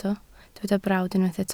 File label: "brawd", Welsh